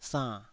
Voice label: "sann3", taiwanese syllable